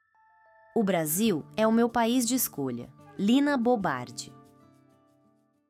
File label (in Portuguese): narracao; lina sobre o brasil